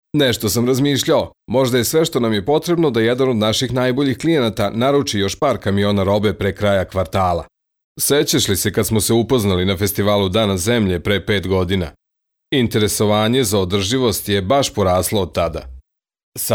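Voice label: Serbian Serbia male narrative; serbian voice over talent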